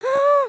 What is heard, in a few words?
talk scared; emotes